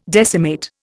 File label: "decimate", Indian